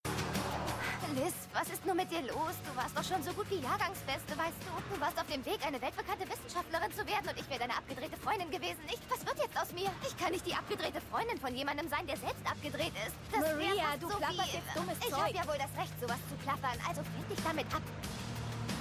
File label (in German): german